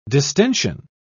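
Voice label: "distention", British English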